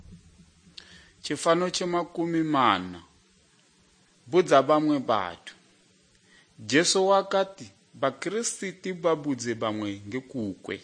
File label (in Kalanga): good news kalanga lilima, drama